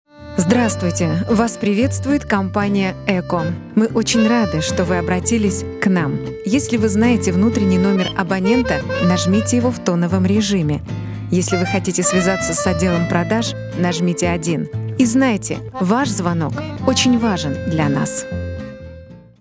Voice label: IVR